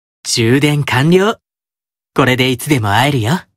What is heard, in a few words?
Notification Voice; Simeon